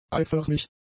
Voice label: "eiförmig", german; adjectives

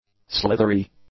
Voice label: "slithery", English; adjectives

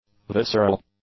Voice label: "visceral", English; adjectives

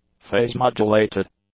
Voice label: "phase-modulated", english; adjectives

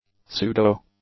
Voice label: "pseudo", english; adjectives

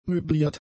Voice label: "möbliert", German; adjectives

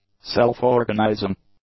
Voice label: "self-organizing", English; adjectives

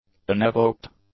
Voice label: "unevoked", english; adjectives

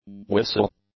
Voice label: "whistle", English; nouns

